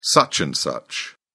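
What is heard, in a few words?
"such and such", British English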